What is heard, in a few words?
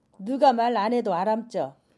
"know", Jeju; know ex